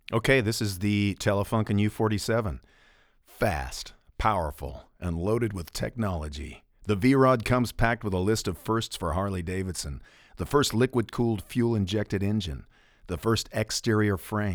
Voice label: Telefunken U47